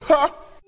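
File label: "huh", vocal sample; Voice; ooh ahh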